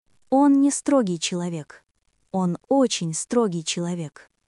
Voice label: Russian, russian phrases insults; ロシア語のネガティブなフレーズ